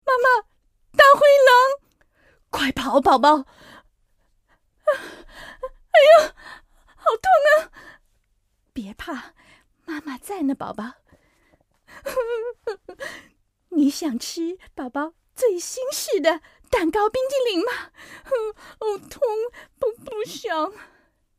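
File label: Chinese character; GoLocalise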